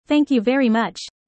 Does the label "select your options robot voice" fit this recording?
No